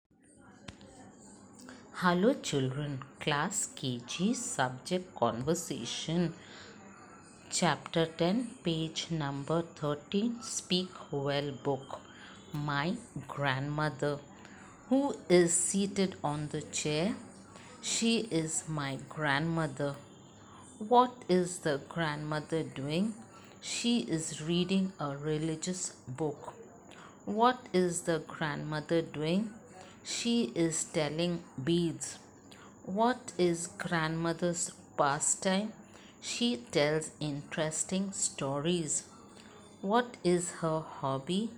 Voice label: conversation